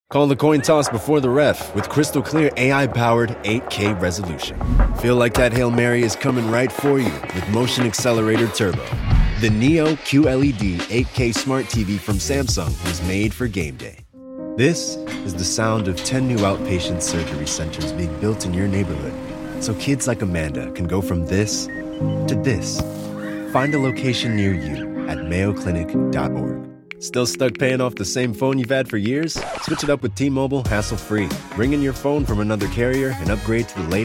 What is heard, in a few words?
Commercial